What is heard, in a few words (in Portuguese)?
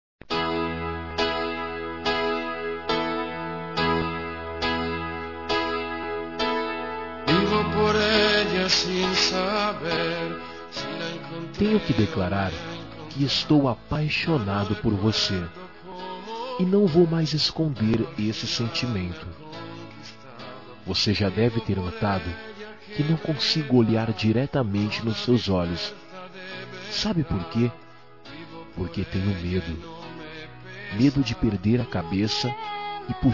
Romântica Com Declaração